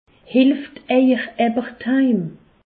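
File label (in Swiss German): "heim", Alsace, Haut Rhin; medecine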